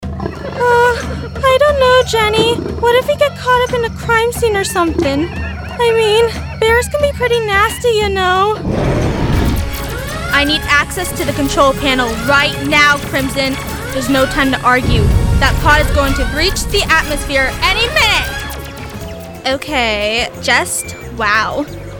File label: animation demo